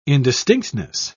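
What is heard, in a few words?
"indistinctness", British English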